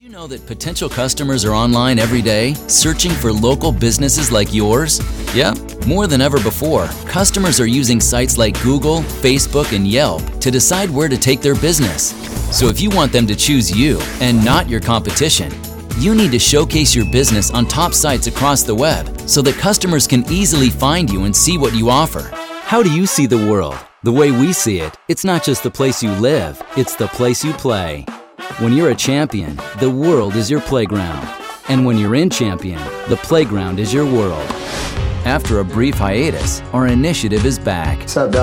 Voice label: American Male